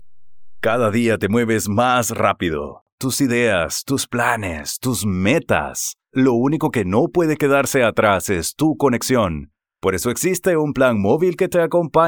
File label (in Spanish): Corporativo Telefonia